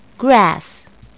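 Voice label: "grass", English; Transcription: / Grass.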